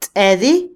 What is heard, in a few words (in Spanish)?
fuerza, Otomí, mujer; INALI